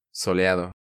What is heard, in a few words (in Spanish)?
"Soleado", Spanish